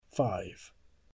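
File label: "five", English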